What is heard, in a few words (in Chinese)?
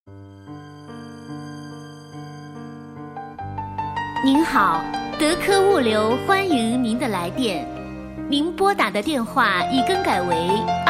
女 彩铃, 方言童声; 德科物流